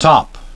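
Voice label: "top", English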